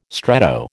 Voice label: "stretto", American